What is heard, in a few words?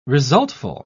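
"resultful", English, British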